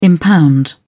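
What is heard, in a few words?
"impound", British English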